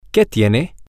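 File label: Spanish, whats wrong, male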